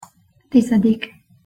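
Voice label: "tizedik", Hungarian pronunciation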